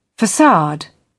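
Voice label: "facade", British English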